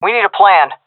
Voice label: request plan, seal fem; vo; Counter Strike Model Pack; CS:GO